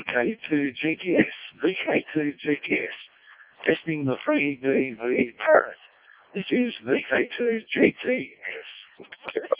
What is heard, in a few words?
decoded speech